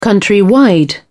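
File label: "countrywide", British English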